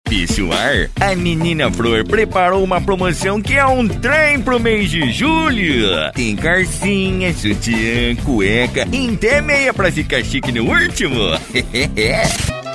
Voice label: Caipira, banco de vozes

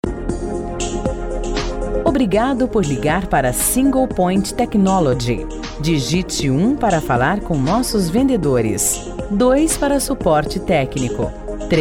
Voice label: Atendimento Digital Diurno

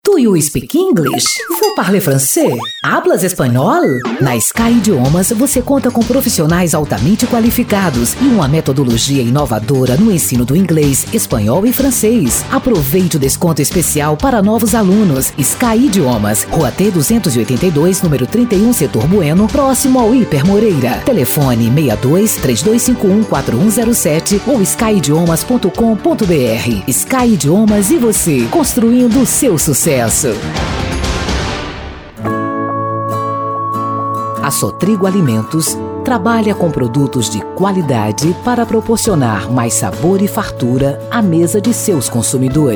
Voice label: Voz BR

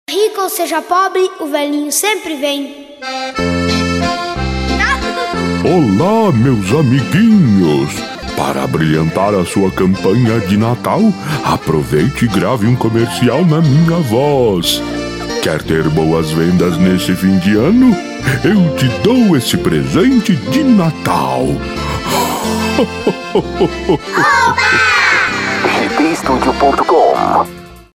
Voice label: voz papai noel

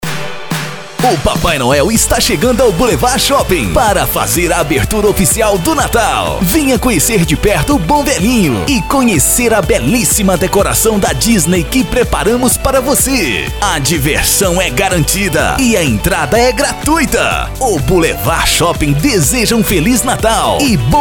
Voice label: Spot Comercial Voz; Boulevard Shopping

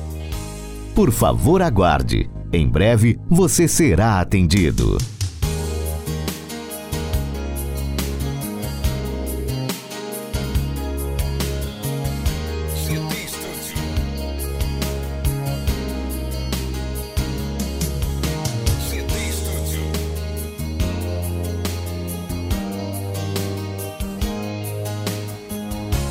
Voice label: Voz Espera Telefonica; GTestudio

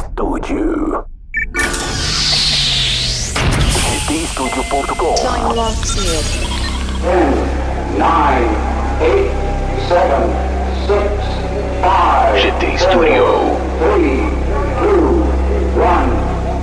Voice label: abertura pronta para dj voz

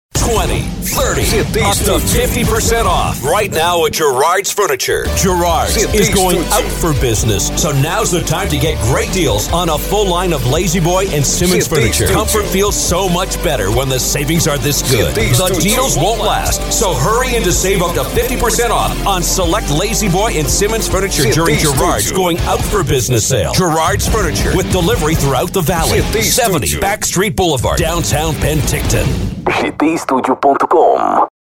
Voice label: Jovem Inglês, locutor em inglês

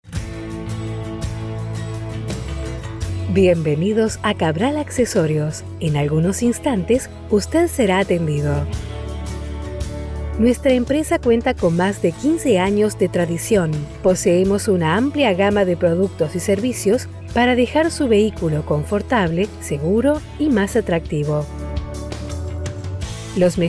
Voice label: Voz Argentina Espanhol

